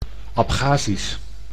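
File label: "Abchazisch", Dutch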